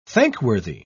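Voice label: "thankworthy", British English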